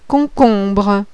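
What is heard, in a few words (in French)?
"concombre", French; CUCURBITACÉE; plante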